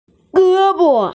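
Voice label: "ge1bo5", Mandarin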